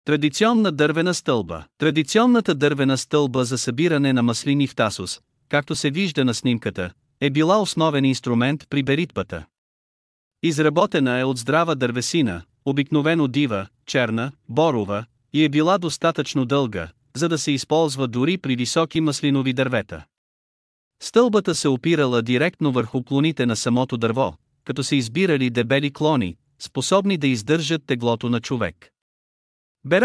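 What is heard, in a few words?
bulgarian, Audio guided tour; Σκάλα; Тя е символ на селскостопанската традиция и дърводелското майсторство на острова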